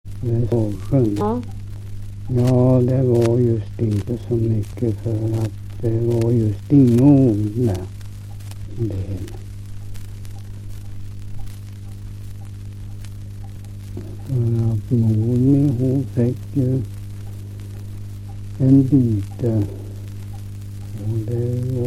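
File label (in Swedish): Ramundeboda Närke, dialekter; Folkminnen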